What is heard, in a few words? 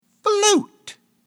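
English flute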